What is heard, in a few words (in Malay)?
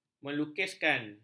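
"melukiskan", Malay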